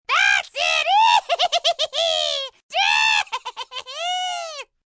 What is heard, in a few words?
Thats It Cackle, Wicked witch; Talking